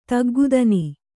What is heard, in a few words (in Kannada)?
"ತಗ್ಗುದನಿ", Kannada